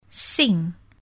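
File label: "sing", English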